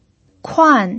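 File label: "kuan" fourth tone, pinyin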